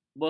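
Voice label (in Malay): "behena", Malay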